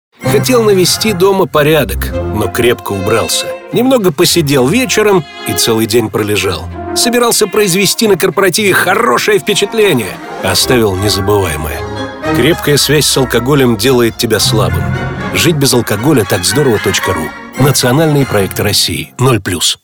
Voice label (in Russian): Радио Алкоголь делает тебя слабым